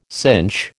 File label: "cinch", American